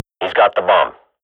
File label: radio bot bomb spot, swat; Global Offensive; player vo